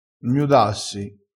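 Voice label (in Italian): "gnudassi", vocabolario dialettale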